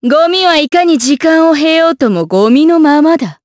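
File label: vox dia Japanese; Nicky; kingsraid; jp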